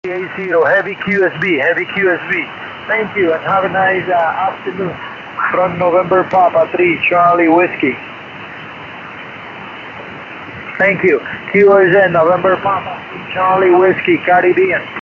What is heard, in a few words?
50.200 MHz USB, ham radio; 16 June 2007 in Puerto Rico